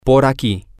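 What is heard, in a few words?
over around here, Spanish, male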